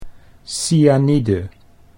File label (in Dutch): "cyanide", Dutch